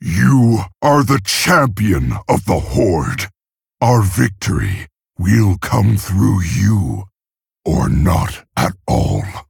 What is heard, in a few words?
Male Orc; Thrall